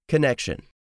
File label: "connection", english; 3000 words